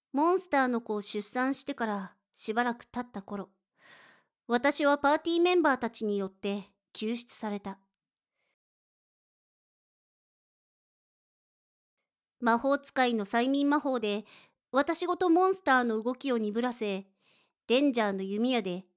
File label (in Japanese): ボイスのみ; 6章◆モンスター使いのエルフ